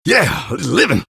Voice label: taunt cong fun; engy; tf; taunts